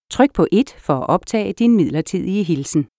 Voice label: Record Temporary Greeting, danish; voice prompts billing